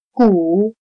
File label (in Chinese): "鼓", chino